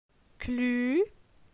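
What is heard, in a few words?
"Clu", French; la syllabe u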